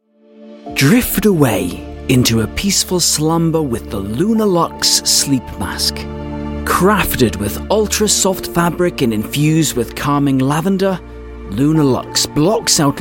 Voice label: commercial